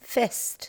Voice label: "fist", english